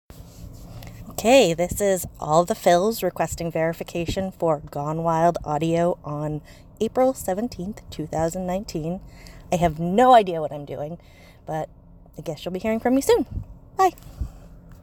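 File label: Female Verification Request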